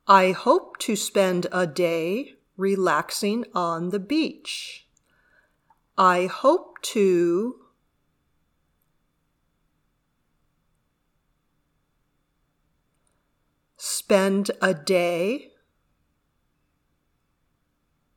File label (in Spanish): Dictado